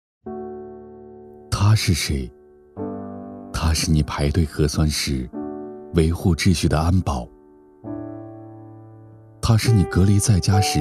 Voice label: 样音公益

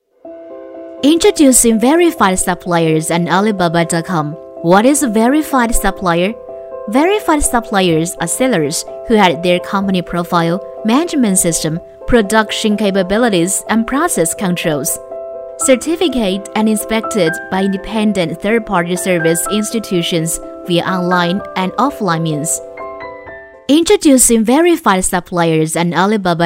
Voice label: 外籍英女英语介绍科技时尚

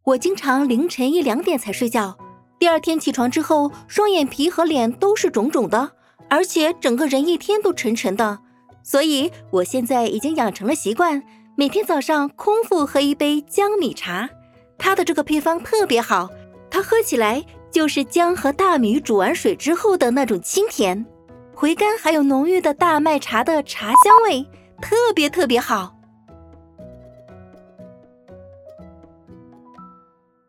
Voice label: 种草文

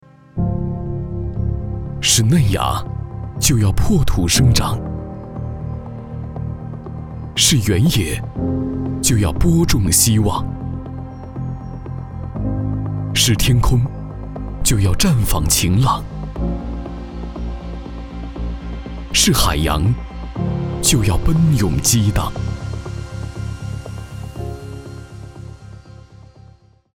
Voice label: 宣传片大气

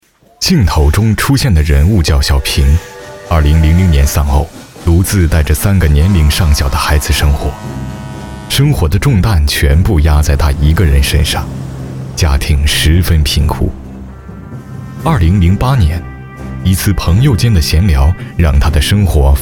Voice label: 讲述纪录片

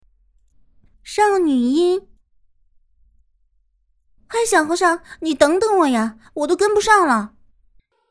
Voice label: 少女音

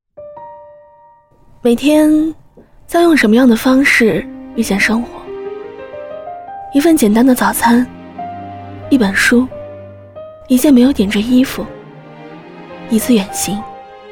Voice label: 旁白舒缓温情素人音

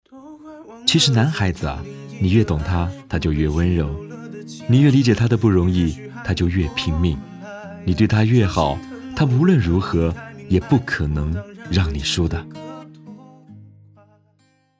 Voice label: 情感

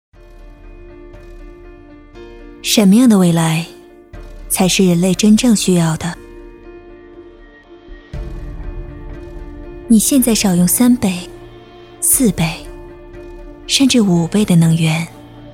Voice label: 广告科技感

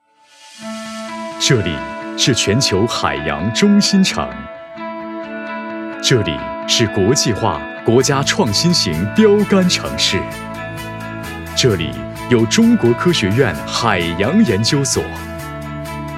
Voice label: 宣传片; 科洋

